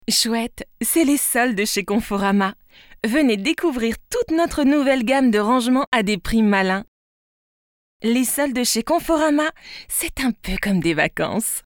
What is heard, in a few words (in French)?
Voix spé Promo